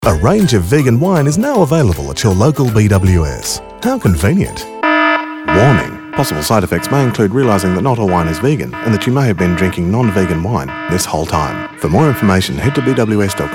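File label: Retail, Male voice over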